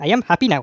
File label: "revenge", Speech; Worms Armageddon; The Raj